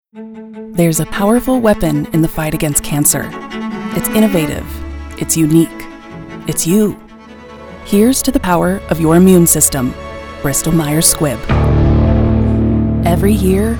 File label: Commercial